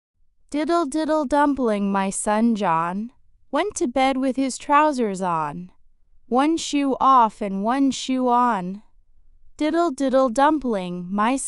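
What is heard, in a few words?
female us; Diddle Diddle Dumpling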